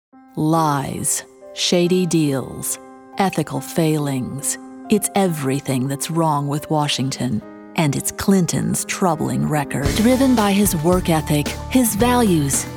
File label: Republican Political Demo